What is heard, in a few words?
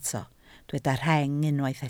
"rheng", Welsh